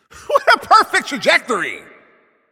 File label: Announcer Especially Good Shot